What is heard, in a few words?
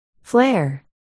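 "flare", spoken word, articulation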